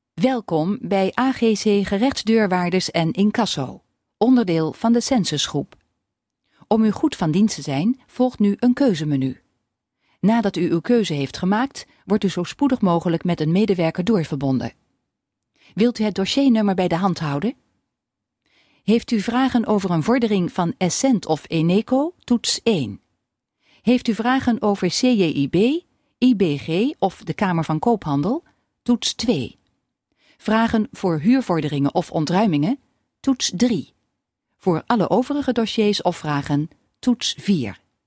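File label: Dutch, female, telecom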